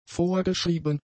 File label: "vorgeschrieben", German; adjectives